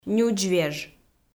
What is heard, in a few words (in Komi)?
"нюдзвеж", Komi